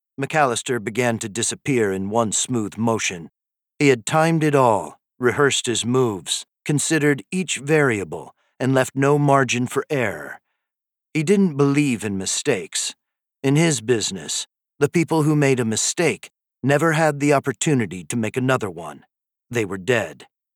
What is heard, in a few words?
Thriller Authority; Executive Actions